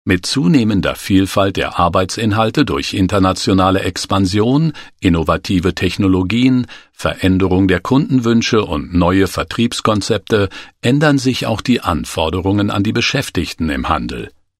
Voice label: e-learning